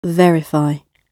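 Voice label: "verify", British English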